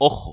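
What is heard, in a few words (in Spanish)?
"ojo", Spanish; ktuberling; tdegames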